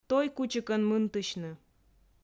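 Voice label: "той кучикӧн мынтысьны", Komi, female; audio dict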